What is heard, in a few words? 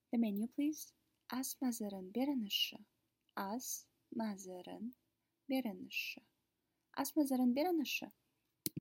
Kaz The menu please